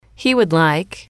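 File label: "he would like", English, female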